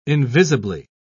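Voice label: "invisibly", British English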